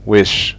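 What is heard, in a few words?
"wish", English; fonetica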